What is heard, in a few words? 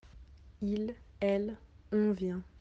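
"venir", French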